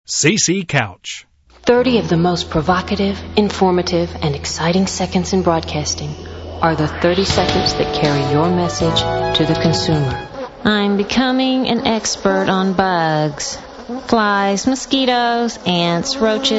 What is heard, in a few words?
Female Talent